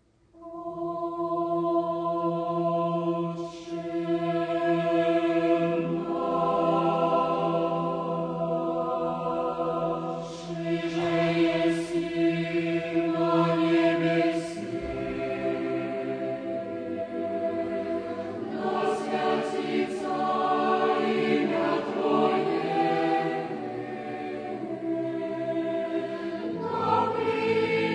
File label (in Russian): russian otche nash; prayer